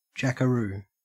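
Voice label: "jackaroo", Australian English; pronunciations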